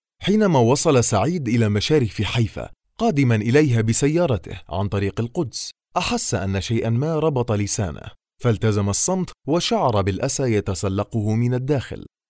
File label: male arabic voice over upbeat